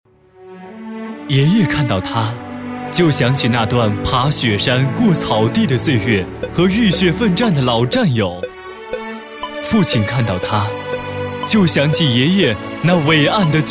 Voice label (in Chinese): 男 专题片讲述感